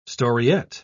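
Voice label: "storiette", English, British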